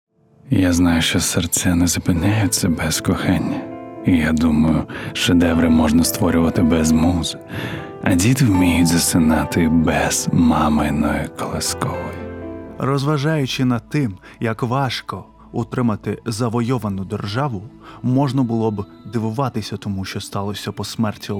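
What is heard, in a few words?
Ukrainian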